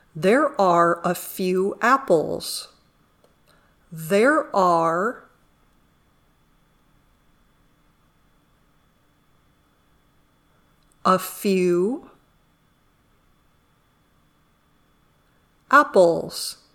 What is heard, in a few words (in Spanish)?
Dictado